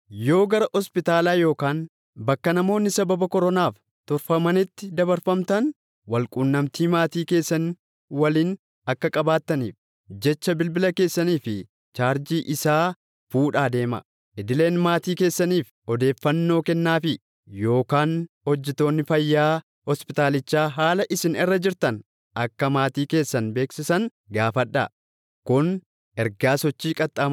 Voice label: Oromo

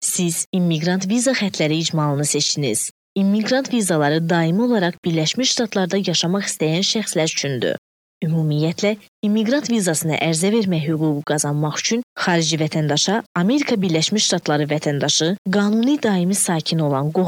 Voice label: Azeri

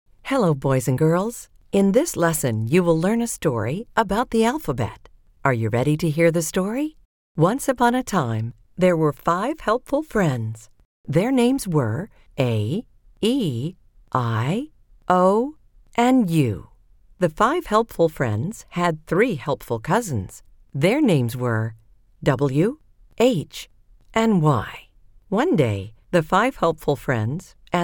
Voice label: US, kids

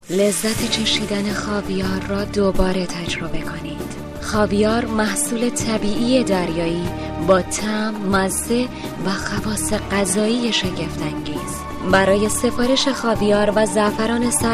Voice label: Farsi

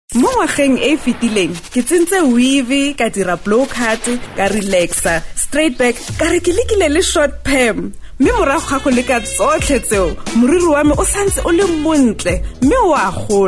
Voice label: SETSWANA

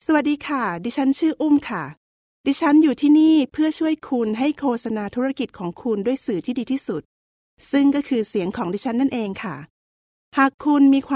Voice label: Thai

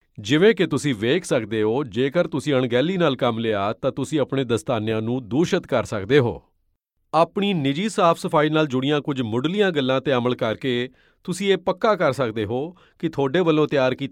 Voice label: Mirpuri

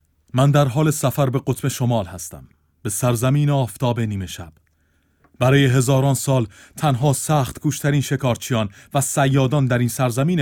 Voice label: Farsi

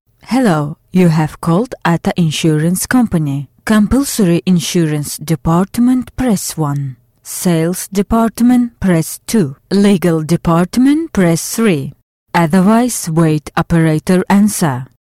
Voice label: Azeri, English

